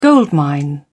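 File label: "goldmine", British English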